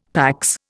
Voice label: "PAX", Indian; Dictionary

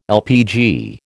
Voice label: "LPG", American

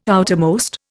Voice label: "outermost", Indian

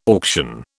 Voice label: "auction", British

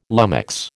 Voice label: "lummox", American

